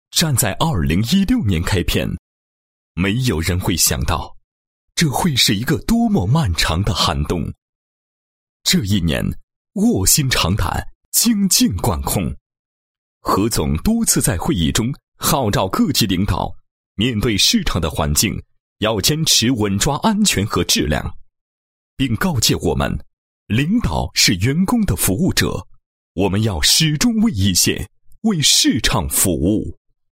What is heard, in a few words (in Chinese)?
男 视频脚本, 配音; 宣传视频脚本; 今麦郎宣传视频脚本